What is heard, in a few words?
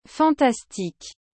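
"fantastique", French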